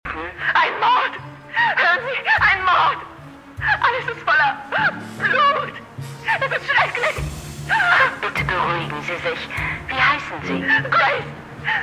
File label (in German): Hörspiel Auszug; Dark Holmes; Grace Dunbar